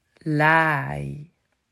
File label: "Laai", Dutch